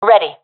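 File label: reporting in, professional female; vo; Counter Strike Model Pack; CS:GO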